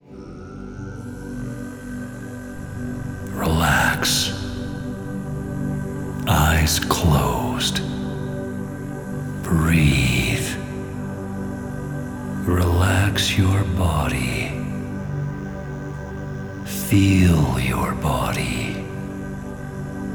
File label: Meditation